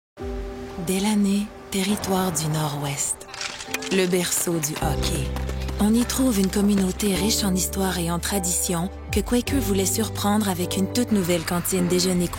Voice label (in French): Annonceur